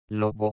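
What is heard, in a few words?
"lobo", Spanish; Fonetica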